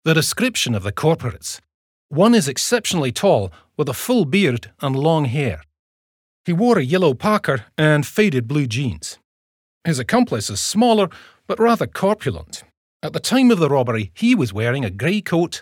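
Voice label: British English, Akzent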